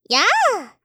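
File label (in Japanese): "やあ" Japanese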